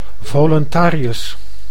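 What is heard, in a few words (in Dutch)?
"viluntarius", Dutch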